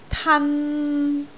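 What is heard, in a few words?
"tan3", Cantonese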